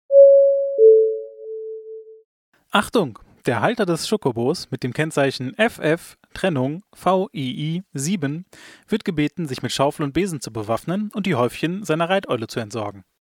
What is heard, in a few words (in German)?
durchsage